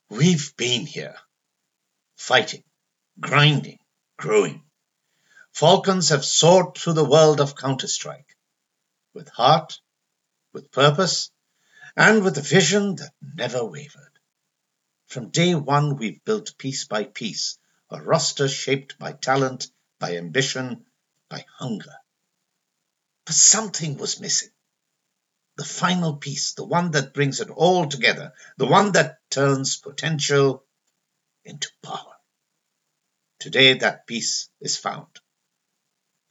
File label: Announcement; Falcons